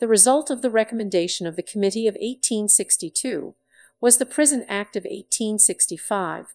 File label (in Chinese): FastSpeech合成的声音